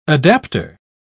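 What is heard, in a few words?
"adaptor", English, British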